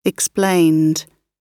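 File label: "explained", British English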